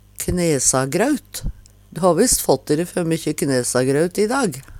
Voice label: knesagraut, Numedalsmål; Substantiv hankjønn; Veggli

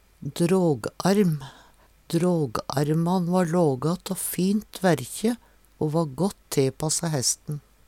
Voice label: dragarm, Numedalsmål

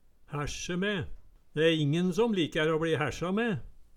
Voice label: hærsje me, Numedalsmål; De e ingen som likær å bli hærsja me

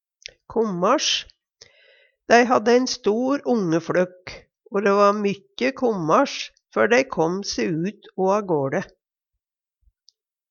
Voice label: "kommasj", Numedalsmål

